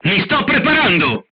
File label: reloading, crew tanks italian; War Thunder